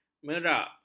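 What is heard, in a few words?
"merak", Malay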